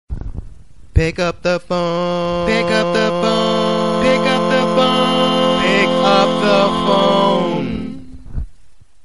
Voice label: pick your phone pick up the phone funny